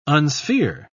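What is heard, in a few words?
"unsphere", British English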